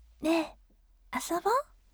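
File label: "ne asobo", gentle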